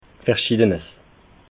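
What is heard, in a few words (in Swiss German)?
"verschiedenes", Haut Rhin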